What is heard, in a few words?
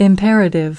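"imperative", spoken word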